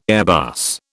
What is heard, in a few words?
"Airbus", British